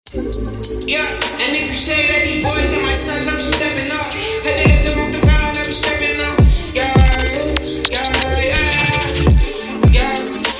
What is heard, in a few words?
Freestyle